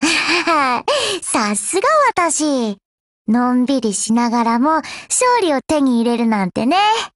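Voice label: WIN Japanese, Voice; GF Alarm; Falcon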